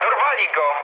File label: aircraft destroyed mood low, speech pol; War Thunder